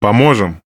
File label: RU Speech Quick Commands Aye Aye; World Of Warships; USSR Ovechkin